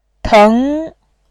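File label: "teng", Mandarin Chinese, second tone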